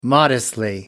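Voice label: "modestly", British English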